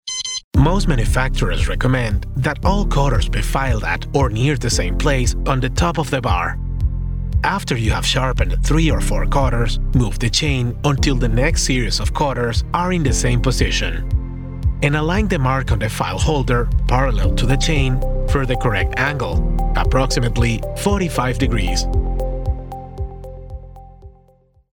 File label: english documentaries